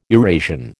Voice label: "Eurasian", American

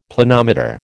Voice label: "Planometer", American

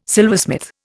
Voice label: "silversmith", Indian

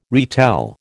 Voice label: "retell", American

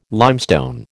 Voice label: "limestone", American

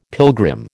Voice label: "pilgrim", American

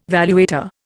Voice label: "valuator", Indian

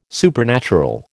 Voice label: "supernatural", American

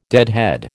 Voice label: "deadhead", American

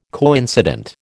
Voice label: "coincident", American

